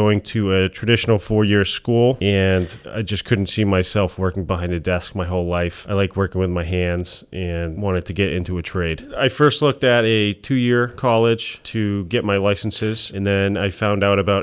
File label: HVAC VO